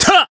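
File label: attack, Japanese; claude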